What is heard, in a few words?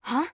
huh aggressive